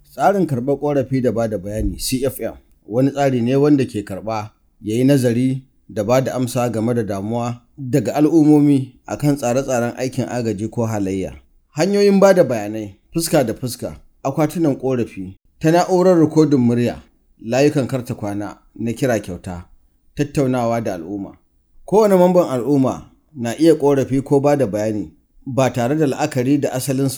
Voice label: Key Messages Hausa; CFM